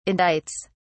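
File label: "indicts", British English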